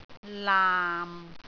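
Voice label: "laam6", Cantonese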